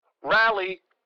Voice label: Military radio voice command rally